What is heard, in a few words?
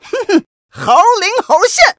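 vivid and monkey-like, Chinese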